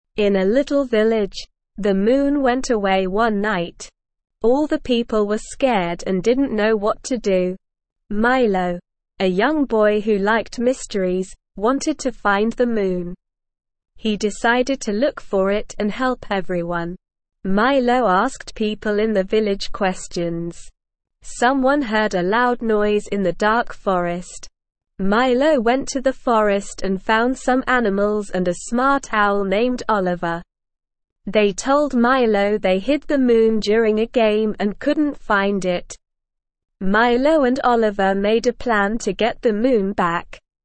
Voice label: ESL Short Stories for Kids Beginner SLOW Reading; The Mystery of the Missing Moon